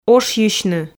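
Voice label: "ошйысьны", Komi, female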